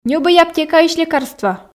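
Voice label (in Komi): ньӧбӧй аптекаысь лекарство, Komi, female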